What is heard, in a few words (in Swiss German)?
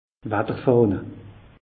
"watterfahne", alsace; meteo